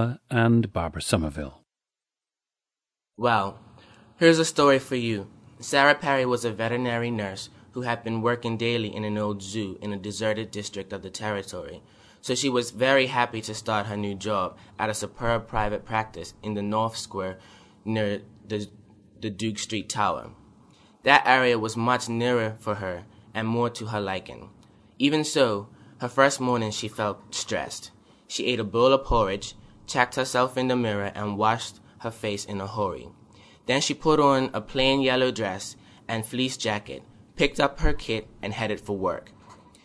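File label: bermuda, International Dialects of English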